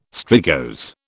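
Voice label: "strigose", British English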